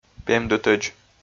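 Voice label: "пемдытӧдз", Komi, male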